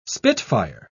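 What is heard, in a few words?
"spitfire", English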